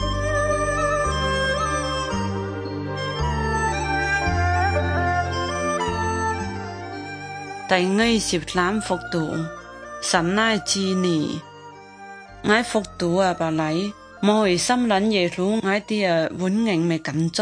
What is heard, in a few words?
good news zhuang guigang guiping